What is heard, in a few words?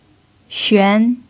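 "xuan2", Mandarin pinyin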